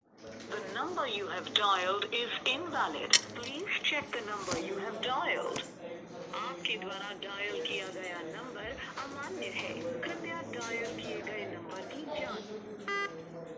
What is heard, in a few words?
call recording